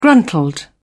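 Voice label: "gruntled", British English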